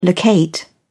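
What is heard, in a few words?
"locate", English, British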